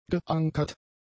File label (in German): "geankert", German; adjectives